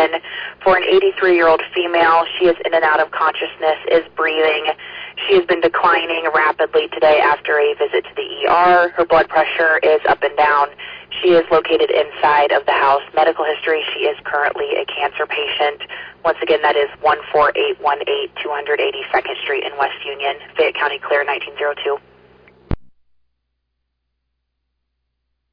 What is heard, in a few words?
Ambulance, dispatch